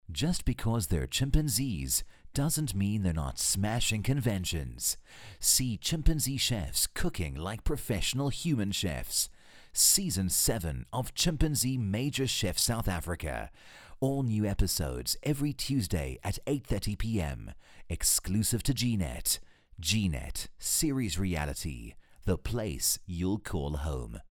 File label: English Typical TV Promo